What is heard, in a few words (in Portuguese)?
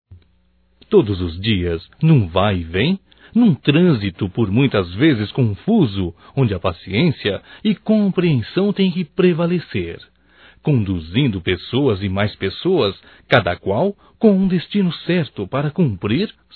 HOMENAGEM AO MOTORISTA DE ONIBUS, Só Voz, masc; Telemensagens; HOMENAGENS